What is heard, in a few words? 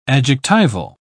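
"adjectival", British English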